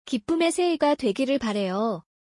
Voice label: Korean, 기쁨의 새해가 되기를 바래요 (gibbeum’ui saehaega doe’gireul baraeyo) - frm